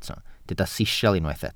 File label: "sisial", welsh